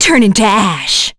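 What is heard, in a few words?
Vox Skill, voices heroes English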